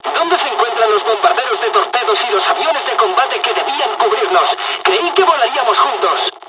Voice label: dialog, dialogs spanish; us midway; War Thunder

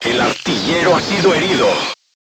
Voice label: voice message gunlayer wounded, Spanish

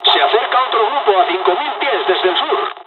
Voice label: dialog, dialogs spanish; War Thunder

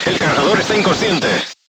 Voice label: voice message charger contused, Spanish

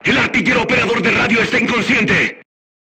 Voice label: voice message machine gunner contused, Spanish

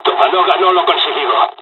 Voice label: dialog, dialogs spanish; War Thunder

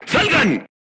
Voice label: voice message leave vehicle, Spanish

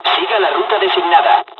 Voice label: storm flight, dialogs spanish; War Thunder

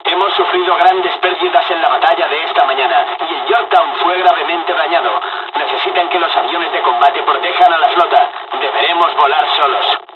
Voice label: dialog, dialogs spanish; us midway; War Thunder

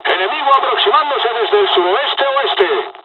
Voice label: dialogs spanish; War Thunder

